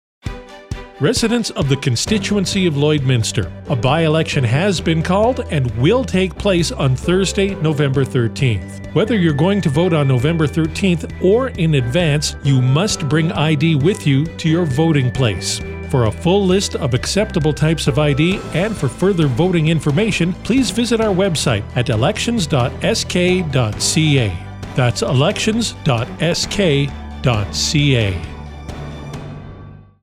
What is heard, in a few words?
Radio spot